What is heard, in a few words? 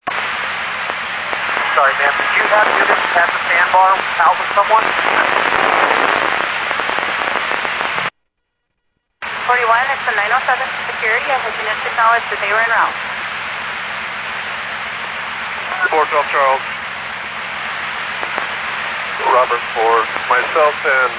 Sheriff Common, scanner audio trunk; ThompsonPeakScot; MCSO SPCL 1C